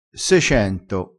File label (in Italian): "secento", vocabolario dialettale